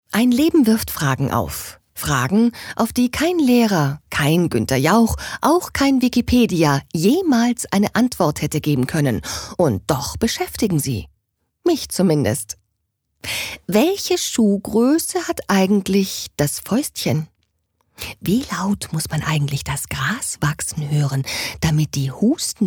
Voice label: Schauspiel Freundlich

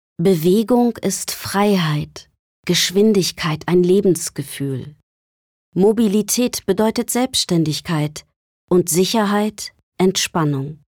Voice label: Sanft; Xios

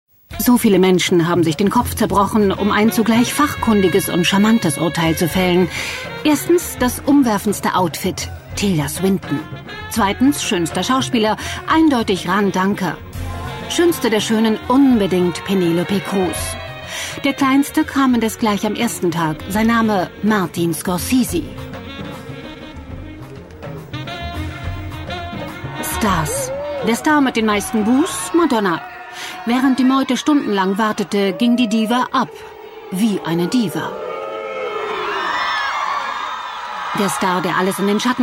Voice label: Lebendig

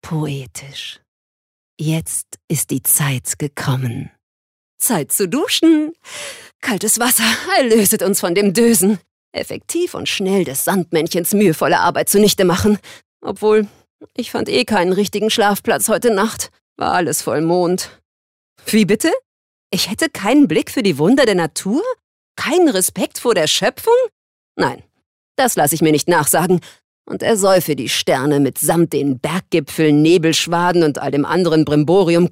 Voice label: Schauspiel Variabel

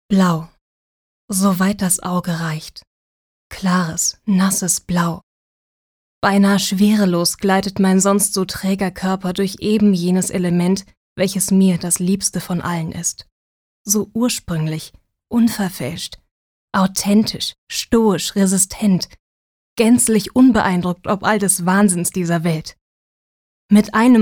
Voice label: Sanft

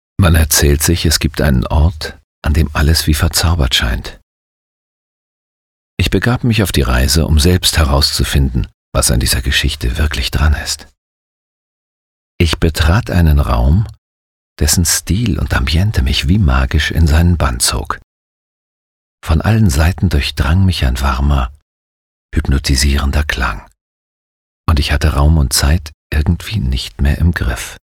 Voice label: Schauspiel Sanft

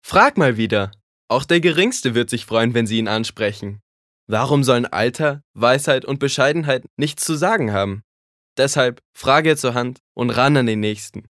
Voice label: Werbung Dynamisch

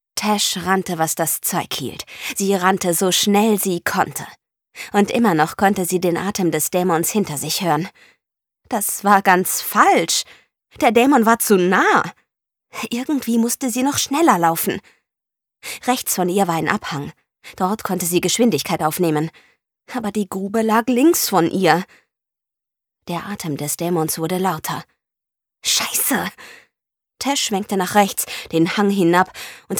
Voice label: Höhrbuch Aufgeregt

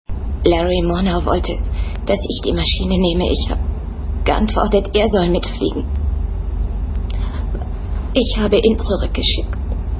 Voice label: Emotional; Fremdtake

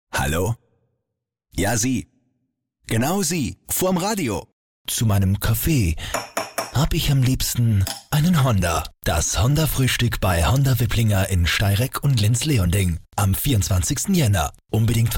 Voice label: Werbung Variabel

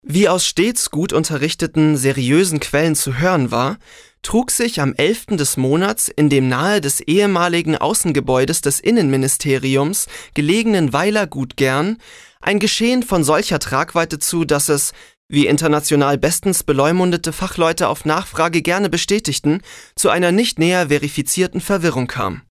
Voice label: Trocken Neutral

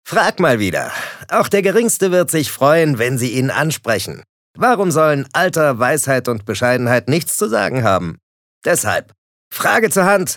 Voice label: Werbung Cool

